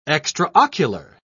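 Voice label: "extraocular", British English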